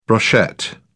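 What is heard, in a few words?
"brochette", British English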